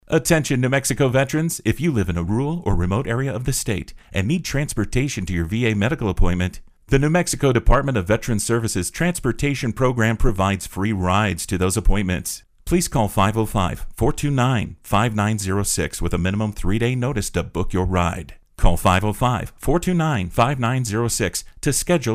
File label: DVS Transportation English; veterans suicide prevention